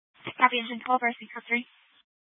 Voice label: Fire Dispatch; C- Chandler